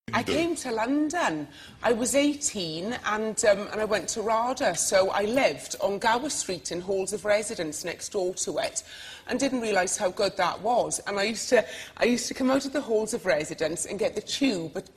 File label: speaker with Welsh accent